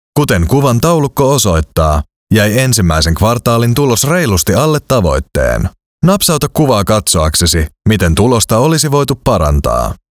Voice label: finnish e-learning

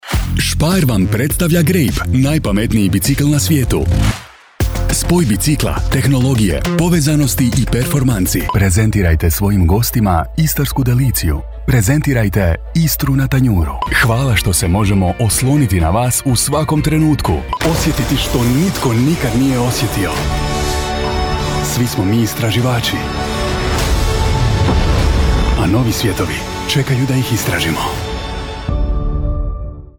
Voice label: croatian commercial; Croata; Croacia; Texto de alta calidad, claro, articulado y positivo para jóvenes o adultos de mediana edad, de origen croat

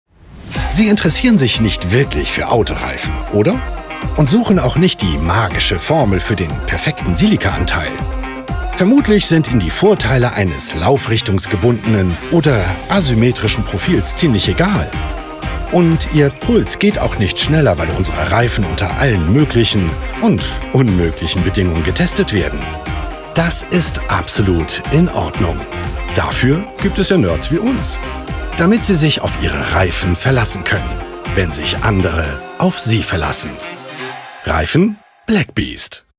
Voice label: German commercial

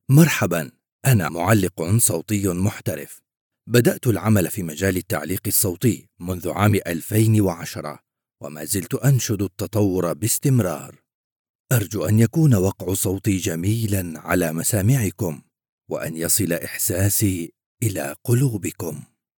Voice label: arabic commercial

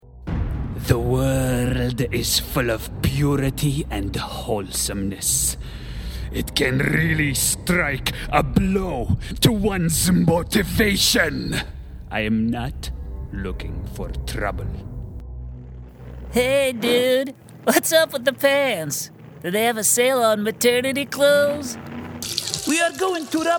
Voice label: english american cartoon animation

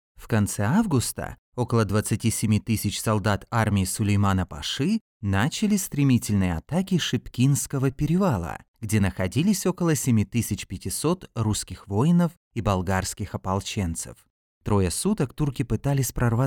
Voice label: russian documentaries

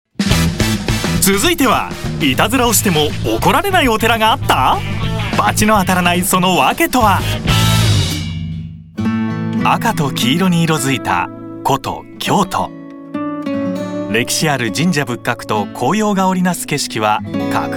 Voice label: japanese tv shows

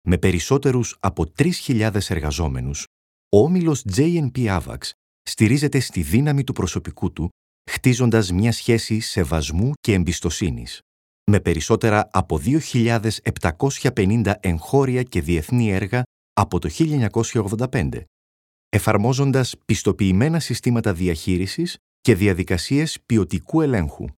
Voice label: greek commercial; Greece

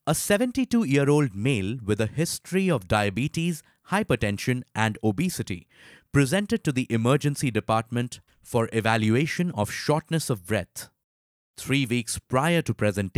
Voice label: english medical narration, hindi voice actors; formally trained and experienced

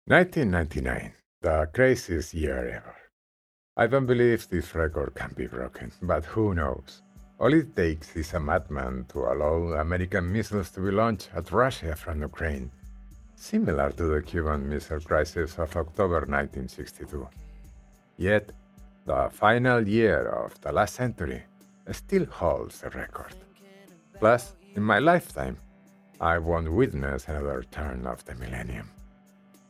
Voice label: english audiobooks, spanish voice actors

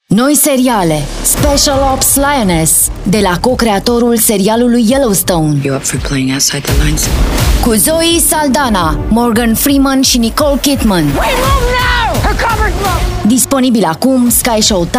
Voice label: romanian movie trailers

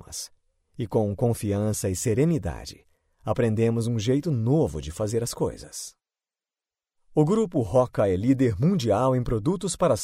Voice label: portuguese brazilian corporate videos

